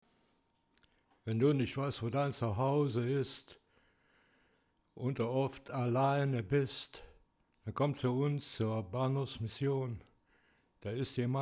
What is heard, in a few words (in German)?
Rap ohne, Voice Executor; Audified